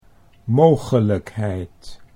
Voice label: "mogelijkheid", Dutch

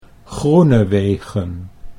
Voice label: "Groenewegen", Dutch

